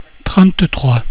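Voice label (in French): "trente trois", French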